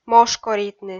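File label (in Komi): "мошкоритны", Komi, female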